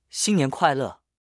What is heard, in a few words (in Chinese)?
"新年快乐" Mandarin; Meaning: Happy New Year